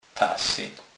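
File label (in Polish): "tasy", Kurpie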